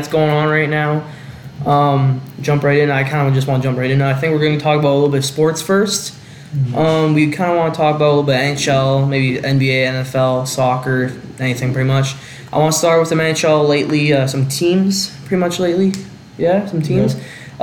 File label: podcast; train wreck